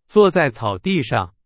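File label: Chinese, example sentence; hsk2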